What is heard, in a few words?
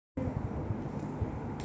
voice message